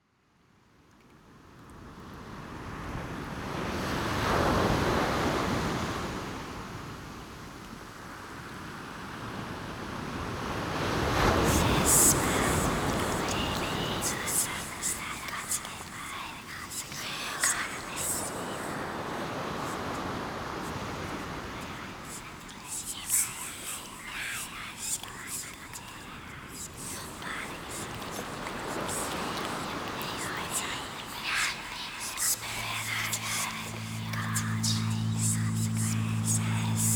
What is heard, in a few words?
ASMR meditation